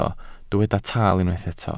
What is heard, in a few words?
"taal", Welsh